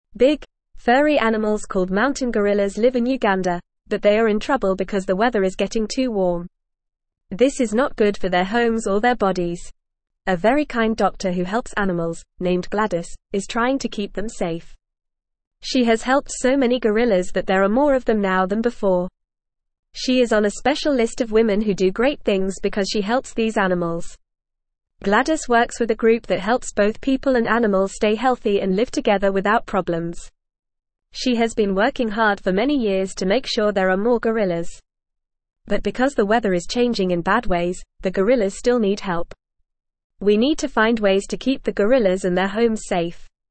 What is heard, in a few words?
English Newsroom Beginner FAST Reading; Helping Mountain Gorillas Gladys and Her Important Work